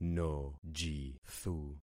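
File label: "nojithu", concatenated syllables; Affixing experiment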